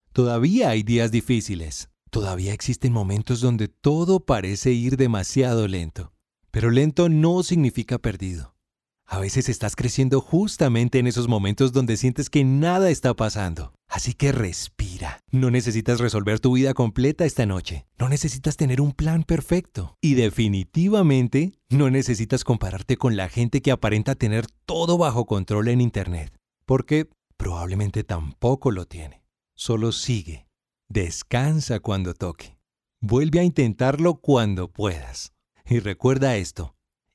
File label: Conversational